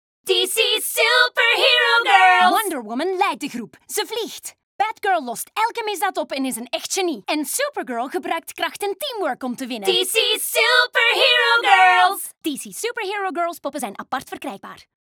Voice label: Commercial; DC Superhero Girls